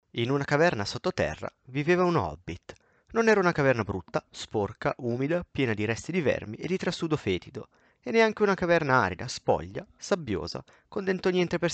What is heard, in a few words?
G433 audio test